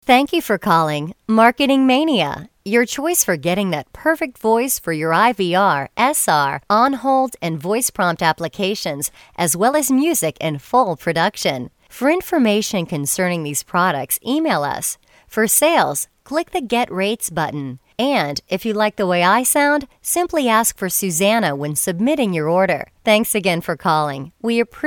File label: Voice Sample, onhold